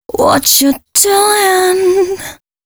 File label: female c# 135, short hooks, Dance Voice; Mutekki Media; Dance Ultra Voice VOL-1